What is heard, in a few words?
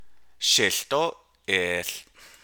Let it float with me, Navajo; imperative